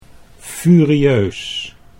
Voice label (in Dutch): "furieus", Dutch